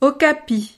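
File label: "okapi", French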